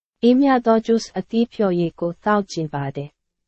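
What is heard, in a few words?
Burmese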